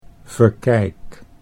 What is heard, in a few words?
"Verkijk" Dutch